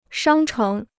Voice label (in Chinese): "商城", Chinese